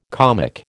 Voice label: "comic", American